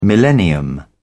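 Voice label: "millennium", British English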